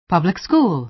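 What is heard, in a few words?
"public school", American English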